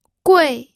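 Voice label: "gui", Mandarin Chinese, fourth tone; pinyin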